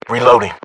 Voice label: uk inform reloading generic, battle chatter, English